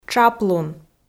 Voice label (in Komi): "тшаплун", Komi